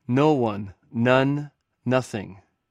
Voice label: "oudeis", Greek; audio-greek